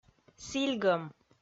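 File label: "сільгӧм" Komi, female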